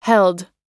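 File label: "held", American English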